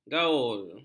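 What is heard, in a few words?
"gaul", Malay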